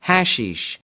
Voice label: "hashish", us

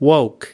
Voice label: "woke", us

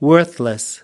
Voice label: "worthless", us

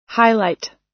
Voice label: "highlight", us

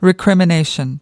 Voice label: "recrimination", us

